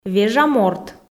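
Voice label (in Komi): "вежа морт", female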